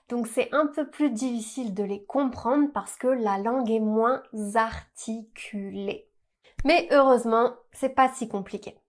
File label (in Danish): Fransk